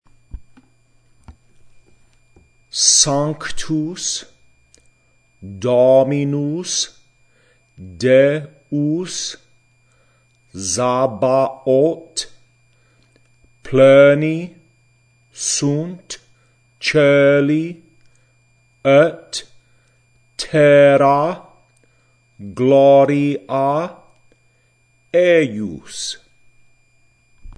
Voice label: Sanctus Recorded Pronunciation Guide